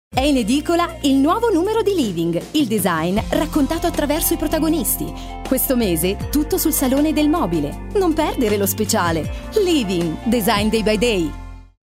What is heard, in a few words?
Spot